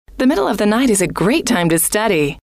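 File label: the middle of the night is a great time to study, female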